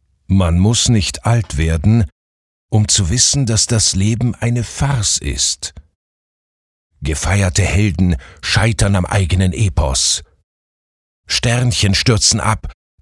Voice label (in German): Poetisch; Weltschmerz